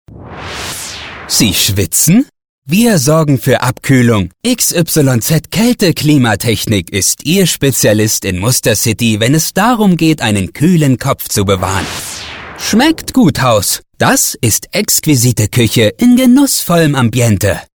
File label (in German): schmunzeln genussvoll